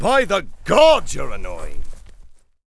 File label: Knight Angry; warcraft3